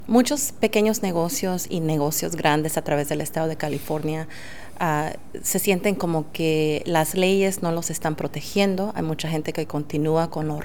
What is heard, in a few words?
SPANISH